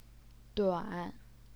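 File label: "duan", third tone, Chinese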